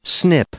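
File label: "snip", English, male; noun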